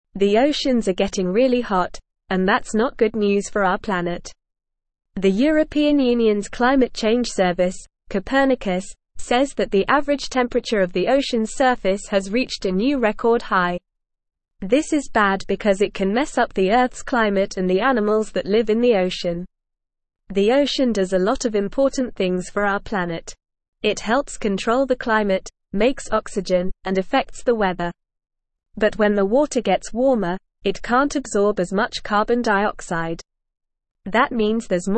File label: English Upper Intermediate NORMAL Reading; Oceans Reach Highest Recorded Temperature Worseni; English Newsroom